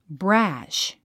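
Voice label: "brash", English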